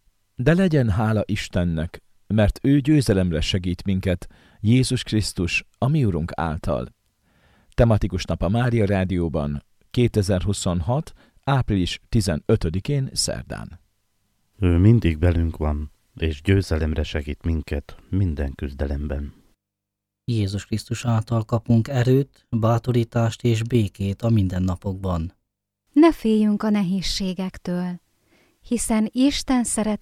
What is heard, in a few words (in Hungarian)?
Jingle szoveg; Tematikus napok; Aprilis